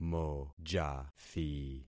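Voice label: "mojathi", concatenated syllables; Affixing experiment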